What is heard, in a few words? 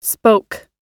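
"spoke", American English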